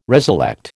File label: "reselect", American